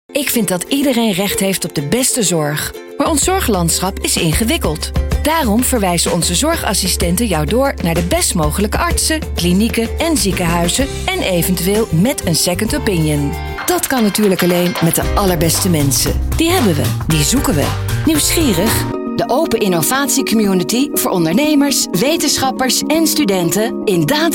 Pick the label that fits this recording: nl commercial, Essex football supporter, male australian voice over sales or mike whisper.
nl commercial